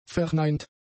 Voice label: "verneint", German; adjectives